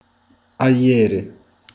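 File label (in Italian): "ieri", Italian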